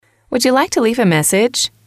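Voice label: would you like to leave a message, English, female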